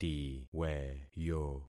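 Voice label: "tiweyo", concatenated syllables; Affixing experiment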